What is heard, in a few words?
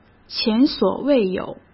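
"前所未有" Mandarin Chinese; hsk; chinese-flashcards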